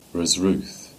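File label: "Redruth" Cornish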